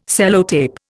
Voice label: "Sellotape", Indian